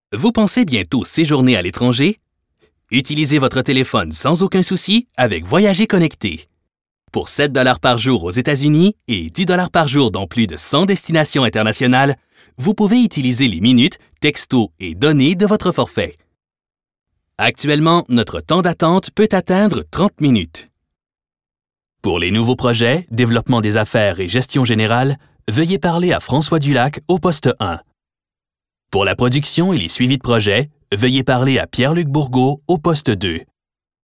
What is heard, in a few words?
Telephone IVR Montage